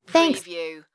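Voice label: Woman Thanks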